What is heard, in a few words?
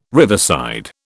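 "riverside", British English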